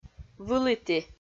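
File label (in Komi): "выліті", Komi-Zyrian, female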